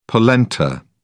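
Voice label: "polenta", British English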